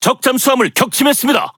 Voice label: Speech Destroy Enemy Sub, KO

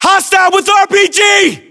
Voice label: US threat rpg, battlechatter; localized english

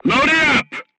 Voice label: voice message load, crew tanks vietnamese

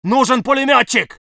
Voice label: voice sov infantry

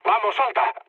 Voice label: bailout, mood low, speech; War Thunder

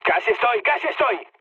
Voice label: near waypoint, mood med, speech; War Thunder

